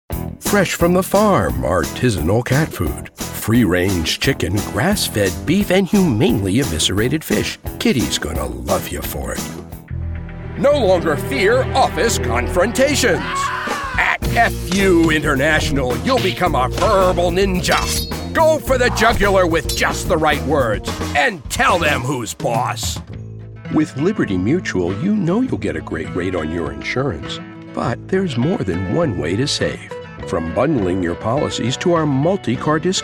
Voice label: Commercial